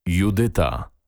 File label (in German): polnische maedchennamen judyta